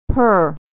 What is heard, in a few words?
"purr" "per"